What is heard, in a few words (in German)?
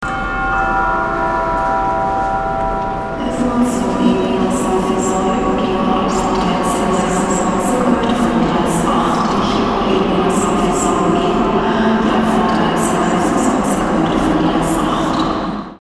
bahnhofsansage zugansage; salamisound